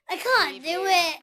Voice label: Child says I can't do it; beanstalk audio

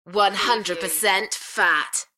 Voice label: UK female 100 Percent fat; beanstalk audio

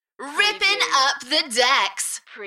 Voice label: UK female, "Rippin up the decks", Enthusiastic; beanstalk audio

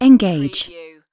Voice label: "engage", UK female; lynne publishing

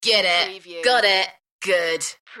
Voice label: UK female Get it got it good; beanstalk audio

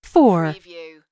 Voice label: US female phone prompt; lynne publishing

